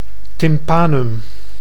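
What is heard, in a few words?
"tympanum", Dutch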